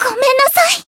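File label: Voice finish, Japanese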